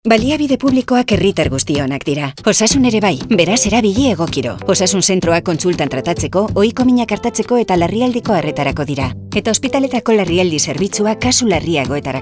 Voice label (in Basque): RADIO EUSKERA; MIX SANIDAD